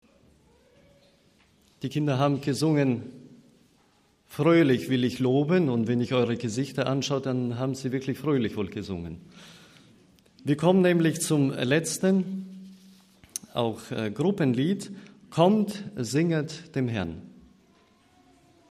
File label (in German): Ansage